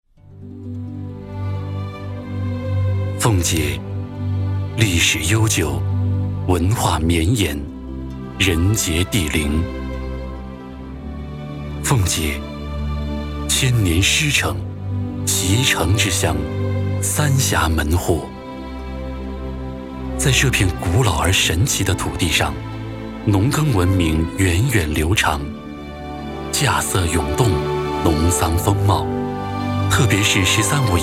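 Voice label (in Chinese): 专题悠扬; 奉节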